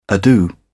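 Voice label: "ado", British English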